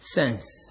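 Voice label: "sense", Nigerian Pidgin, male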